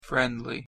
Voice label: friendly; harrypotter